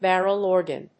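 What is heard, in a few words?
"barrel organ", English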